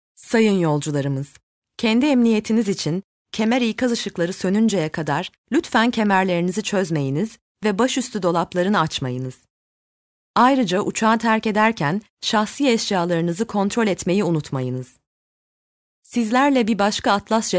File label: turkish girl